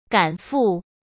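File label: "赶赴", Mandarin Chinese; hsk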